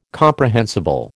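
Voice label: "comprehensible", American English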